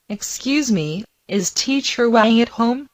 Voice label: Mandarin Conversation

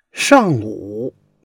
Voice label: "shang4 wu3", Chinese

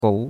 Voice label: "gou2", chinois; Cours de Chinois

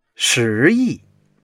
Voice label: "shi2 yi4", Chinese

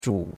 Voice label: "zhu3", chinois; Cours de Chinois

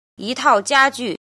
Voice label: "jin", Chinese

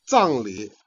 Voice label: "jian", Chinese

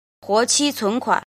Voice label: "jin", Chinese

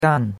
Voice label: "gan4", chinois; Cours-de-Chinois

